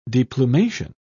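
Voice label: "deplumation", English, British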